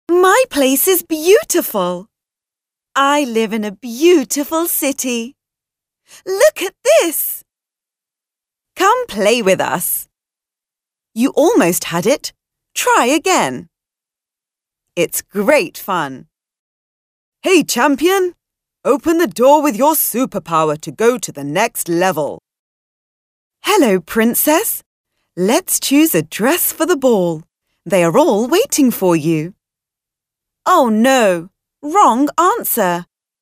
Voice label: GB fem children